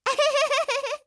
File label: voice Fun